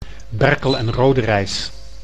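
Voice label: "Berkel en Rodenrijs", Dutch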